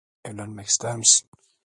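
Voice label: "evlenmek ister misin", Turkish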